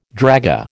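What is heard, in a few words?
"dragée", American English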